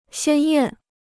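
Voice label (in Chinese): "鲜艳" Chinese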